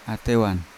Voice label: "atewan", Yawa; tail; unat